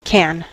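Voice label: "can", US English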